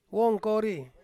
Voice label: "wongkori", crocodile, Ansus